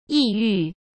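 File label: "抑郁", Mandarin Chinese; hsk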